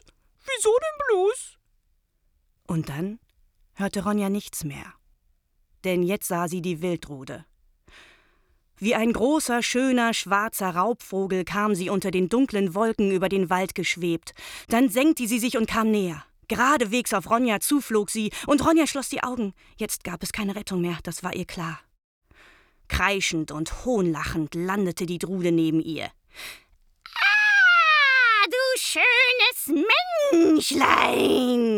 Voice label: audio book; Astrid Lindgren